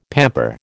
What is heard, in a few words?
"pamper", American